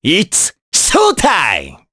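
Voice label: vox trs Japanese; Mitra; kingsraid; jp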